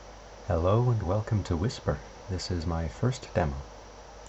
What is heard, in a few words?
whisper english